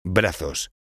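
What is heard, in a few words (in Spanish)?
"brazos", Spanish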